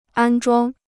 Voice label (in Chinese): "安装", Chinese